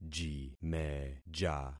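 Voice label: "jimeja", concatenated syllables; Affixing experiment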